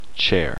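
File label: "chair", male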